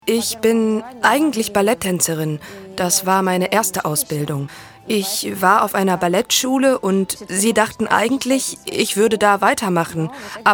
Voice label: voice over; LOFT VOICES